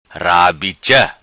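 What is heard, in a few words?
"Rabicha", patua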